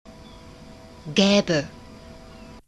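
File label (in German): "gaebe", German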